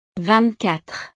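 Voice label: "vingt quatre", French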